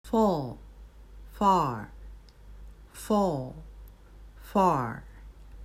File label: "fall far", English